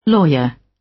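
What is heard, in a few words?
"lawyer", British English; Crime and punishment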